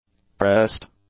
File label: "pressed", english; adjectives